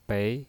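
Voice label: "bei", Mandarin Chinese, second tone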